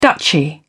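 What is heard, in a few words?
"duchy", British English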